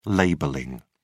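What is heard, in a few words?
"labelling", British English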